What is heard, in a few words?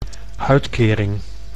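"uitkering", Dutch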